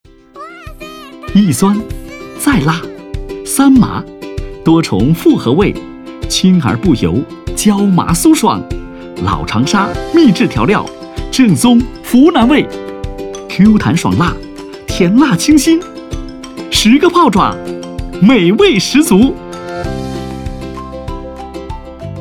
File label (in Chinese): 广告（麻辣过瘾 激情）; 泡爪; 泡爪广告